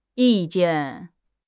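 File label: "yi4 jian4", Chinese; HSK4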